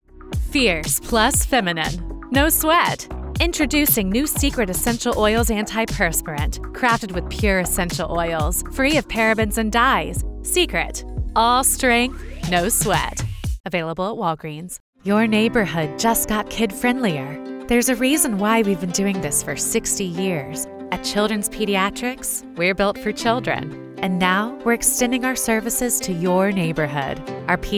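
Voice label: commercial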